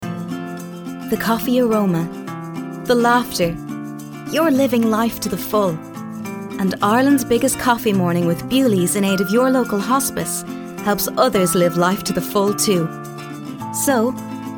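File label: English, Advert Irish; GoLocalise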